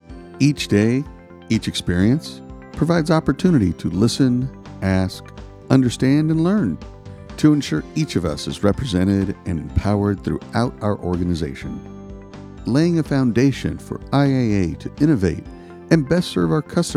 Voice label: HR Training Diversity Video